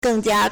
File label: "geng4 jia1", Chinese